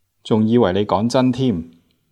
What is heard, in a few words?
"tim1", Cantonese